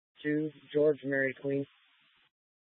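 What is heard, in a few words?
Incident Tac 3, Scanner audio recording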